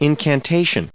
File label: "incantation", en us